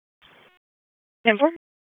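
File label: Police Southwest District Dispatch; wake p25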